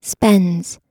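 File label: "spends", US English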